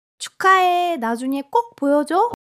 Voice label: joy

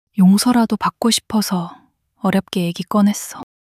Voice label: shy

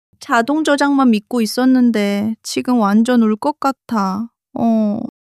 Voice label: crying; monologue

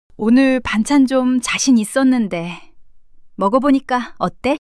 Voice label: shy; monologue

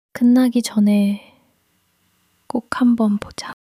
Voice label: sadness

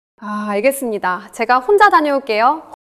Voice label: neutral, monologue

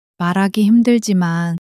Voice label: sadness, monologue

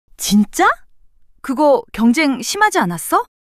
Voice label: surprise, monologue

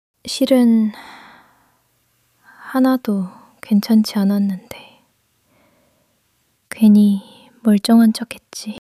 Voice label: sadness

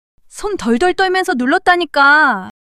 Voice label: fluster; monologue

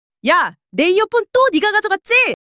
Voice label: anger; monologue

